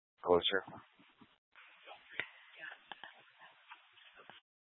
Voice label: Fire Departments, scanner